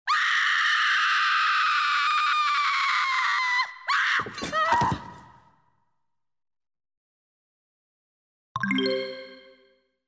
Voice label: Female PC Dies; Mystara; TSR 2502 Hail The Heroes